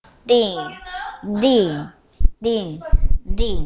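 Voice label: "ding", spoken word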